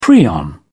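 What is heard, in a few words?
"prion", British English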